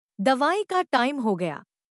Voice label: Dawai Ka Time Ho Gaya Female Ringtone